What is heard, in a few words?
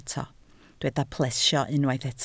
"plesio", Welsh